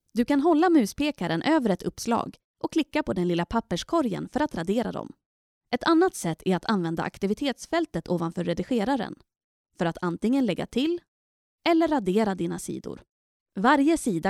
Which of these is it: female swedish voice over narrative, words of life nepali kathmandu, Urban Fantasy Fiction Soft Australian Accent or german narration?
female swedish voice over narrative